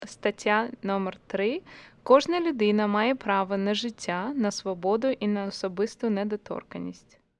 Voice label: Ukranian

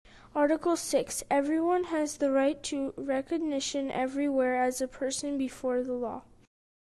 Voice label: English

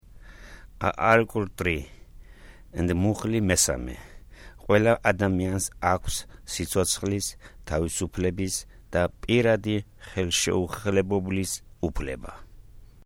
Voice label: Georgian

